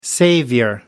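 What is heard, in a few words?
"savior", English, British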